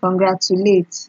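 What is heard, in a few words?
"congratulate", Nigerian Pidgin, female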